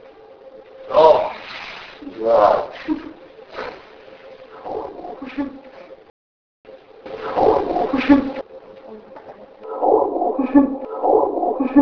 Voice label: scary voice with talking; The voice comes after "Oh God" and the first laugh, and appears to be saying "You're Horrible."; Scary Voice With Investigators Talking; The Jerome Ghost Report